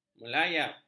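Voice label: "melayap", Malay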